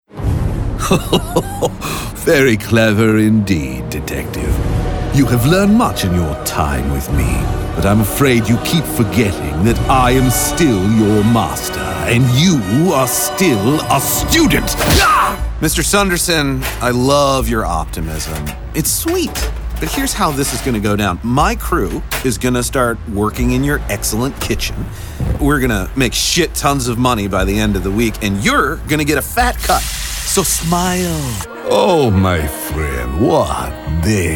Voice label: vo character